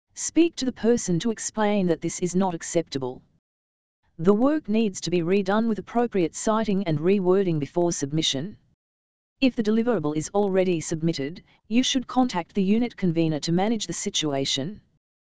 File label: dialog